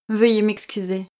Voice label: "veuillez m'excuser", French

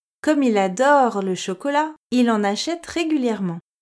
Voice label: "comme il adore", French

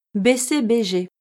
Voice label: "BCBG", French

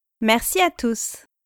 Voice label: "merci à tous", French

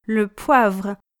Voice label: "le poivre", French

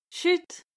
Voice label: "chut", French

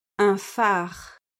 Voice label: "un phare", French

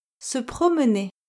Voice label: "se promener", French

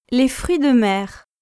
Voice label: "les fruits de mer", French

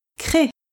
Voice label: "créé", French

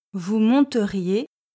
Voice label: "vous monteriez", French

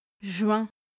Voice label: "juin", French

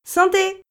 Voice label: "santé", French

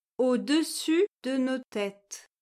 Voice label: "au-dessus de nos têtes", French

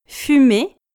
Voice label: "fume", French

